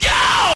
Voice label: "yo" fake, Voice; ooh ahh